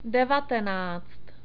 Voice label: "devátés", Czech; numbers

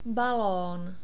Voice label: "balon", Czech; long vowels

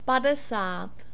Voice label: "padesat", Czech; numbers

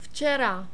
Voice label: "vcera", Czech

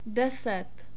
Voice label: "deset", Czech; numbers

